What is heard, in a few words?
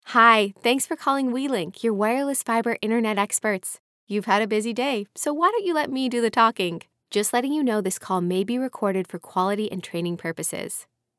Welcoming Friendly IVR Telephony